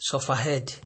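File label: "sufferhead", Nigerian Pidgin, male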